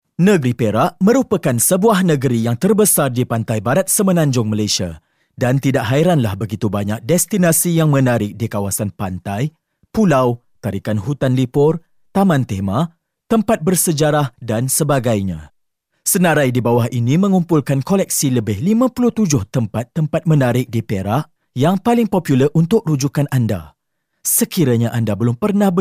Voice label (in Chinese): 马来西亚语男, 外语, 小语种, 宣传片